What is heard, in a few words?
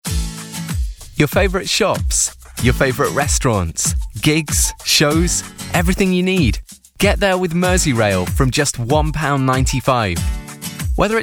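radio commercial, Male; Merseyrail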